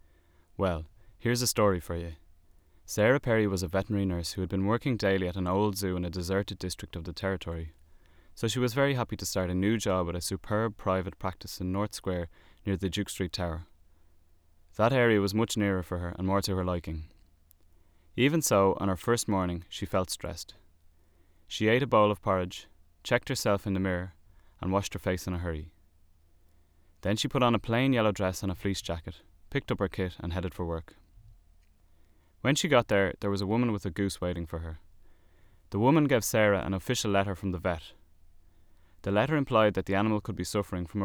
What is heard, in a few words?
hiberno english